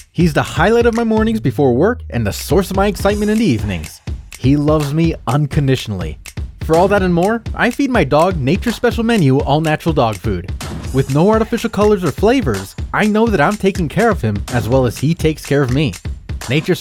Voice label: Commercial

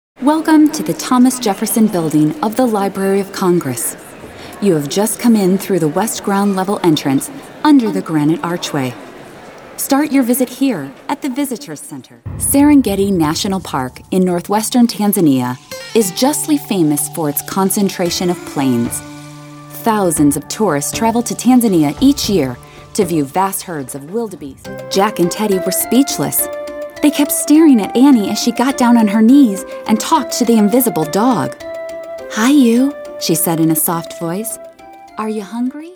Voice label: narration

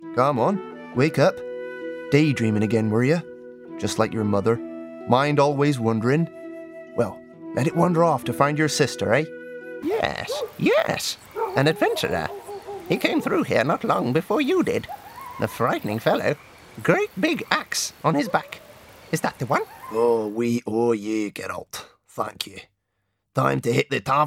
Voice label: Video Game Reel

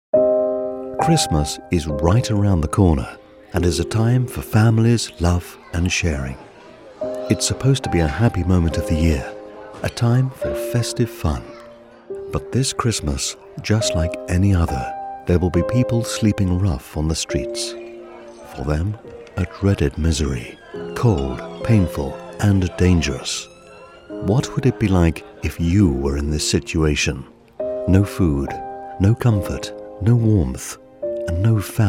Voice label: Charity appeal

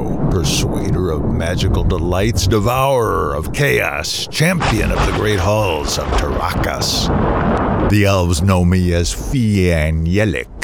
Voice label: Wizard with effects; ITU; Usidore Wizard of Ephesius

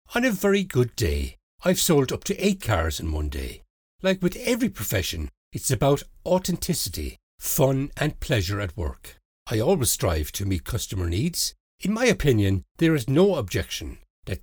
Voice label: vo dry studio recording

